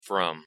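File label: "from", English pronunciation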